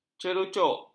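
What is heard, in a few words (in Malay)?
"cerucuk", Malay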